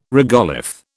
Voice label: "regolith", British

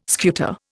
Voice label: "scuta", Indian

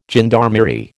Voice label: "gendarmerie", American

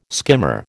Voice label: "skimmer", American

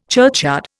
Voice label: "churchyard", Indian

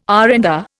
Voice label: "R and R", Indian

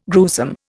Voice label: "gruesome", Indian

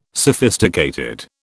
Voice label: "sophisticated", British

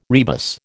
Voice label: "rebus", American

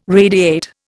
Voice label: "radiate", Indian

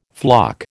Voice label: "floc", American

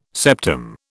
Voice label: "septum", British

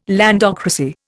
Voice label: "landocracy", Indian

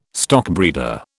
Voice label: "stockbreeder", British

